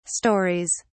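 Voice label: "stories", English, British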